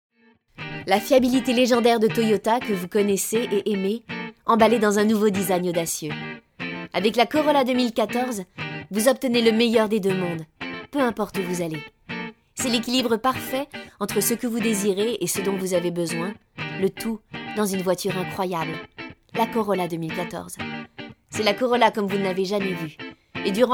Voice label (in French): Publicite